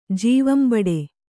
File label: "ಜೀವಂಬಡೆ", Kannada